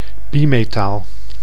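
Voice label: "bimetaal", Dutch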